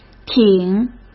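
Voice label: "挺", Mandarin Chinese; hsk; chinese-flashcards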